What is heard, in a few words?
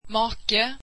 "husband", swedish